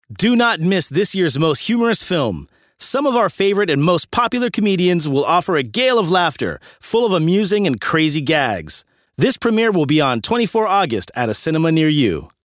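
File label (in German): American English Fremdtake Dynamisch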